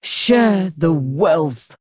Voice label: Female Blood Elf Play